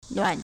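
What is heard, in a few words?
"nuan3", Mandarin Chinese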